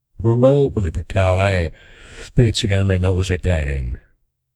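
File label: a deep resolute male voice; dramatic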